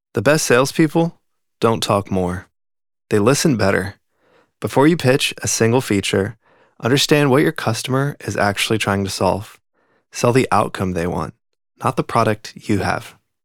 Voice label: elearning sales training; upbeat confident

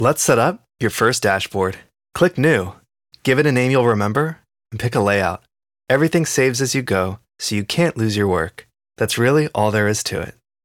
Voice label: elearning software how to; tutorial

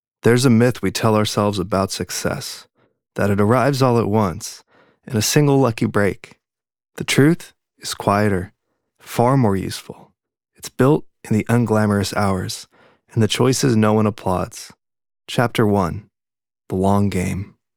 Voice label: audiobook nonfiction; warm engaged

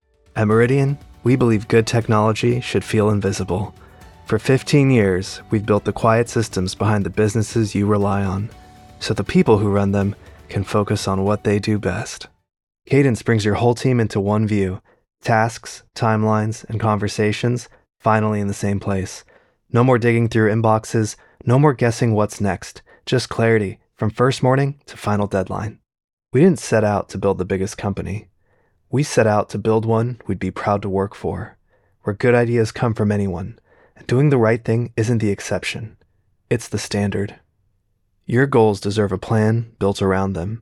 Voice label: corporate explainer; warm conversational male

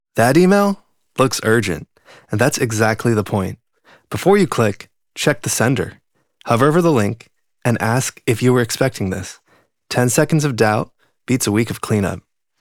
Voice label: elearning cybersecurity awareness; bright alert

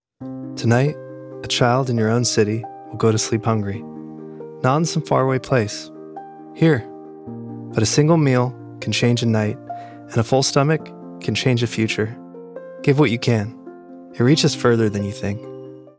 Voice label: commercial nonprofit psa; sincere moving

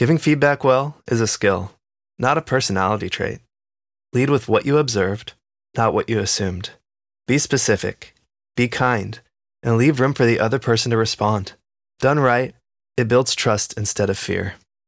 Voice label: elearning soft skills feedback; coaching

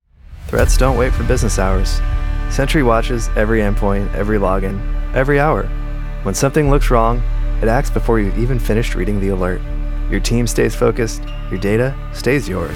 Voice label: explainer cybersecurity; bright confident

